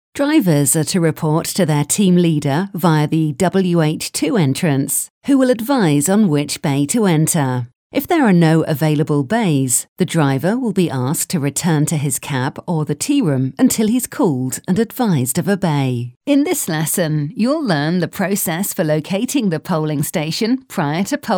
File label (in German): Eng UK Kommentar Freundlich